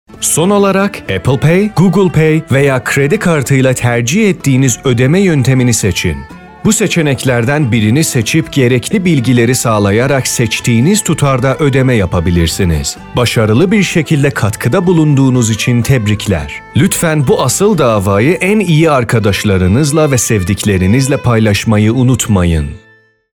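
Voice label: Turkish Male; zaban kharjeh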